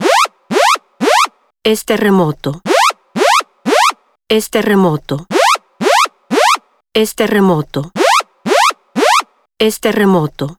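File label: translated earthquake, Spanish; iOS 26 Sounds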